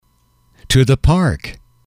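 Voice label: to the park, English, male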